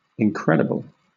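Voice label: "incredible", British English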